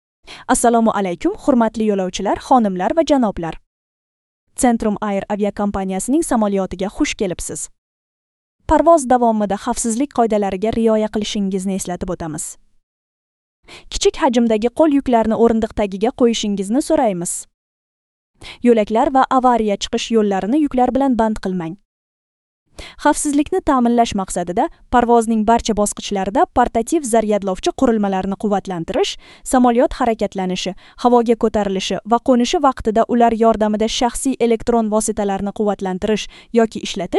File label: uz